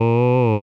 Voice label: voice oo m